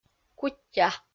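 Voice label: "куття", Komi, female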